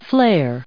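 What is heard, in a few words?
"flair", US English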